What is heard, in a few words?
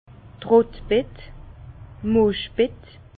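"trottbett", Alsatian, Bas Rhin, maie; vin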